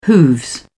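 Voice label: "hooves", British English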